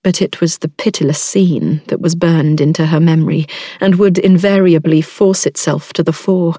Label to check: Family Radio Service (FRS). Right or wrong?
Wrong